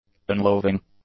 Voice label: "unloving", English; adjectives